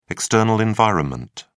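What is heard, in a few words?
"external environment", British English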